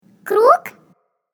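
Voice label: "circle", Russian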